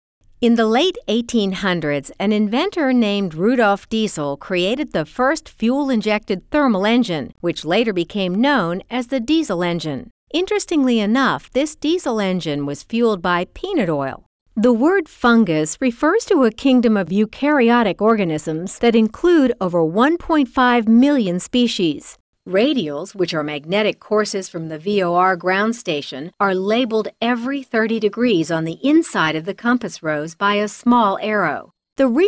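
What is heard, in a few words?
technical, female voice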